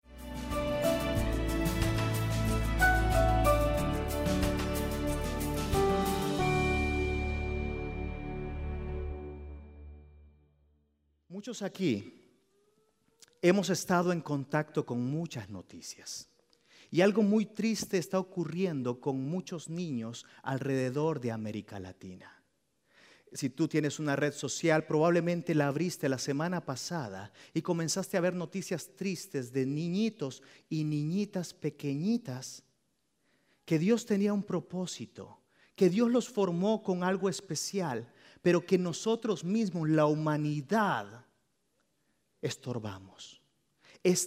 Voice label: Spanish Sermon